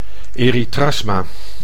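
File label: "erythrasma", Dutch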